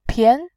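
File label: "pian", Mandarin, tone 2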